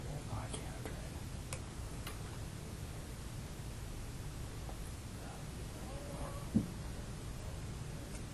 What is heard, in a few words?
evp "that's so wrong"